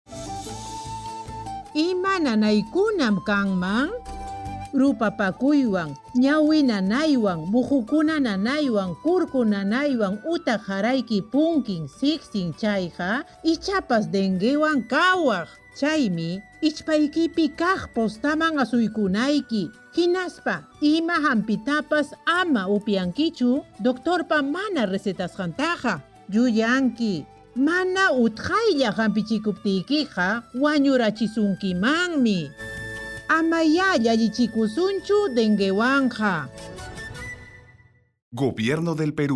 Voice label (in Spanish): quechua chanka, spot de radio; sintomas del dengue; sospecha o confirmacion de contagio